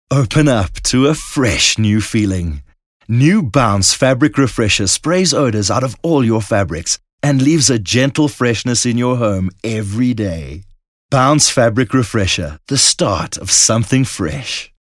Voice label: English Bright